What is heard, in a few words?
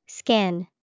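"skin", English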